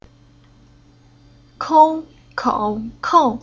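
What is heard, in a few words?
"Kou", Chinese syllable; Уроки фонетического блока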